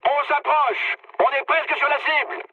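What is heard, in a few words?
near waypoint, mood high, French; War Thunder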